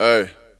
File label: "ay", voice